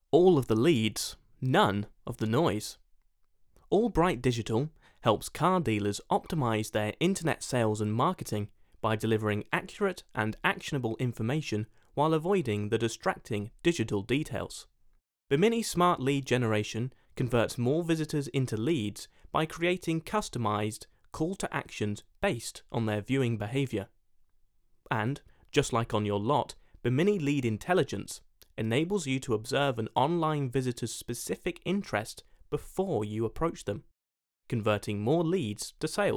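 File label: thirty second adverts; Bimini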